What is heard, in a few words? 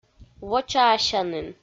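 "вочаасянін", Komi, female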